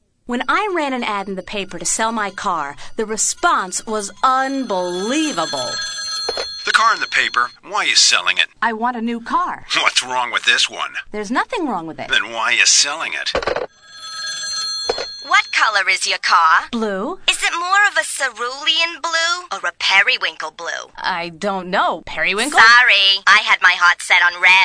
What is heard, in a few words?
Car Cash Phone Calls